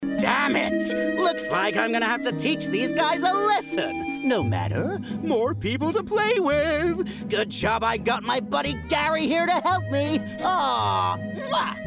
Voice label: Animation Voice

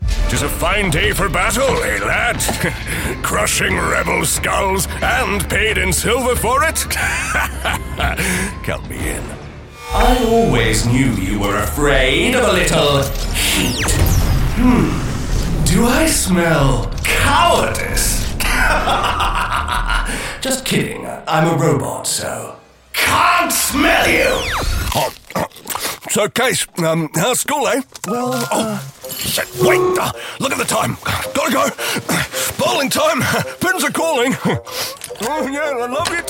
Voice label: Character, en-NZ

